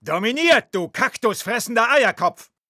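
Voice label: domination engineer, German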